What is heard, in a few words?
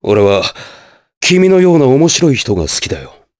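vox dialogue, voices, Japanese; Nicx; kings raid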